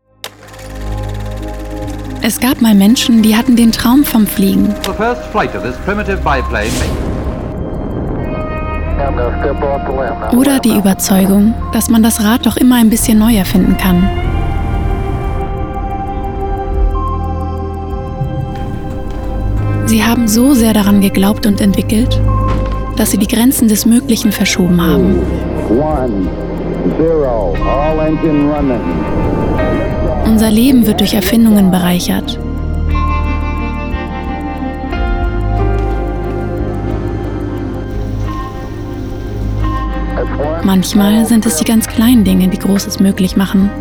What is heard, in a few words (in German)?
Imagefilm; OTTO FUCHS